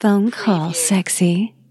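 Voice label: Female Phone Call Sexy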